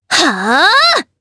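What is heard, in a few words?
Casting, Japanese; Sonia; kings raid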